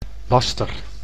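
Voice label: "laster", Dutch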